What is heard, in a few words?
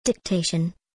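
"dictation", English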